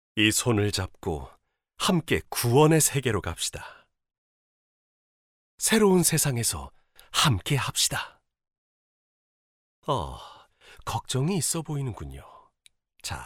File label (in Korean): 진중한 30대; 사이비교주